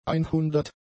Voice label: "einhundert", German; adjectives